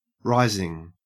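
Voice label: "rising", Australian English; pronunciations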